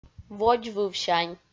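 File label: "водзвывсянь", Komi, female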